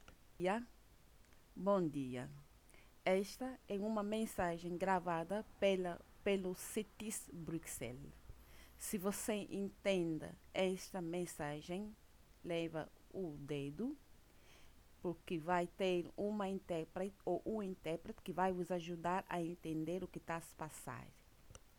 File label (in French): Portugais